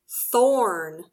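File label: "thorn", English; palabra del dia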